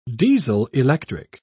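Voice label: "diesel-electric", British English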